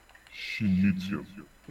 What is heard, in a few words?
schnitzel in lowered pitch voice; imperador; schnitzel demon version